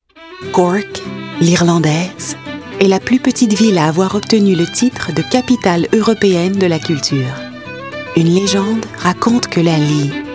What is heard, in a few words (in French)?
démo Narration; Cork